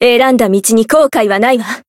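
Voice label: variable chant, Japanese; hisumena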